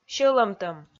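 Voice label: "сьӧлӧмтӧм", Komi, female; kpv audio dict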